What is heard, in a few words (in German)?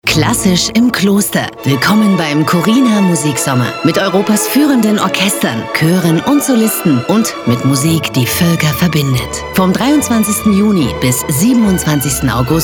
Radiospot; Choriner Musiksommer; LOFT VOICES